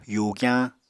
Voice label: "yugyañ", Apatani